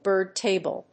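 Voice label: "bird table", English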